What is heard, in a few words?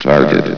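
"target", male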